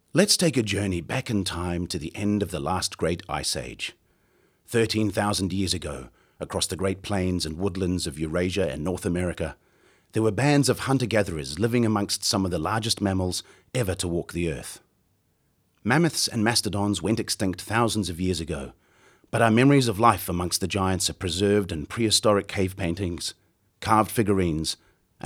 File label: exhibition audio commentary; Mammoths Intro